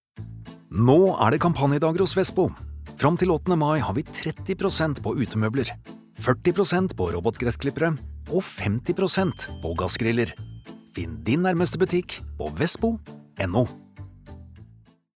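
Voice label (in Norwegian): no reklame, Norwegian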